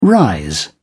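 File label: "rise", British English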